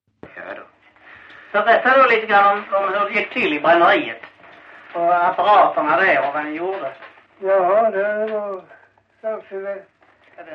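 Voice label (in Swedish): Farstorp Skåne, dialekter; Folkminnen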